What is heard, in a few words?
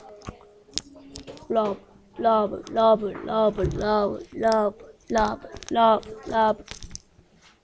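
"rub", spoken word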